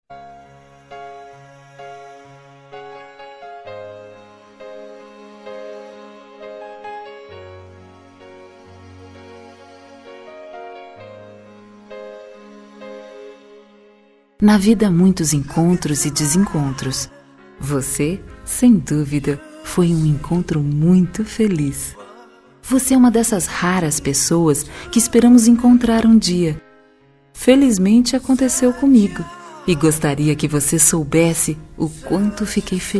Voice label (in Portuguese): ROM BONS MOMENTOS JUNTOS FEM